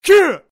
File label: "Kwe" voice karate figth manga; free videogame sound; Sound Graph